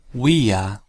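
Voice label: "via", pronunciation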